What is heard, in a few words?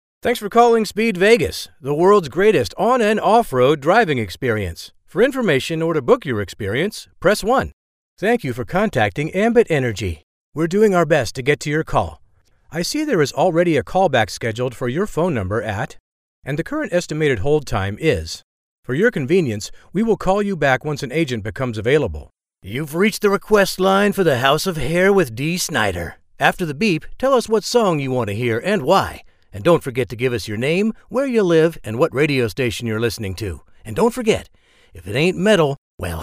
Voice Mail